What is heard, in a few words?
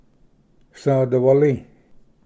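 "svdiwali", Cherokee; ᏒᏗᎳᎵ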